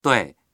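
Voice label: "dui4", Mandarin Chinese; 对; 正しい、はい; ～に対して、～に向かって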